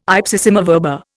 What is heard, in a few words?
"ipsissima verba", Indian